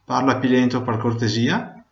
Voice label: slowly, Venetian